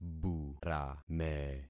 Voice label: "burame", concat syllables; Affixing experiment

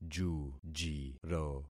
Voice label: "jujiro", concat syllables; Affixing experiment

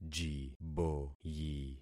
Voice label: "jiboyi", concat syllables; Affixing experiment

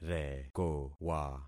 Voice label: "rekowa", concat syllables; Affixing experiment

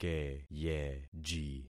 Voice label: "keyeji", concat syllables; Affixing experiment

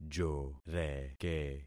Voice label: "joreke", concat syllables; Affixing experiment

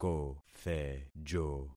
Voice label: "kothejo", concat syllables; Affixing experiment

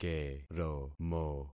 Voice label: "keromo", concat syllables; Affixing experiment

